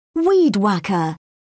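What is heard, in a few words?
"weed-whacker", British English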